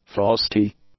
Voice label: "frosty", English; adjectives

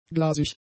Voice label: "glasig", German; adjectives

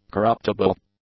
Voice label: "corruptible", English; adjectives

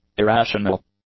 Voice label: "irrational", english; adjectives

